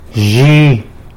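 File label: "tomorrow", Tlacolula Zapotec; yzhi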